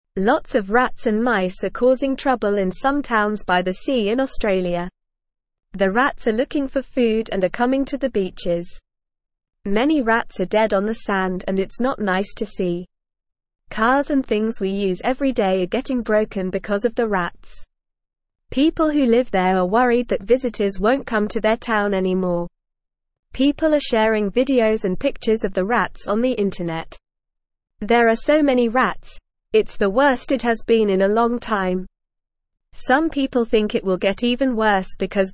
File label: English Beginner NORMAL Reading; Trouble at the Beach Rats and Mice Everywhere; English Newsroom